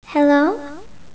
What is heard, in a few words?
little girl hello; cstrike; sank sounds